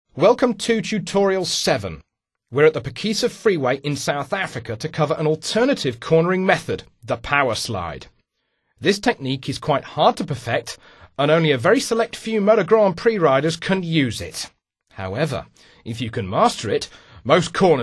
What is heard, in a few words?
powerslide japanese, speech; MotoGP 3